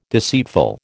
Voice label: "deceitful", American